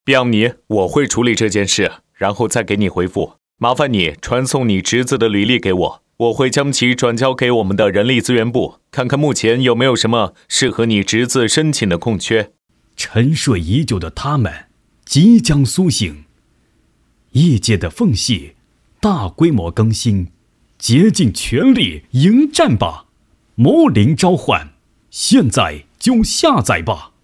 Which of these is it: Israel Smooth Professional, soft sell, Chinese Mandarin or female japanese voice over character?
Chinese Mandarin